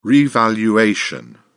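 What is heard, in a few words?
"revaluation", British English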